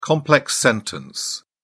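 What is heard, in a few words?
"complex sentence", British English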